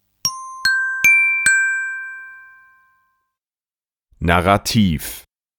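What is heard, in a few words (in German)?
"Narrativ", German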